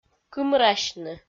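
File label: "кымрасьны", Komi-Zyrian, female; kpv audio dict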